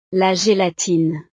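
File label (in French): "la gelatine", French; snacks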